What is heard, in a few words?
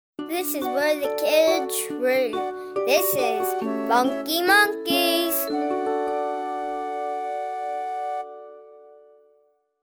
GIRL, Jingles; Funky Monkeys; NI Jingles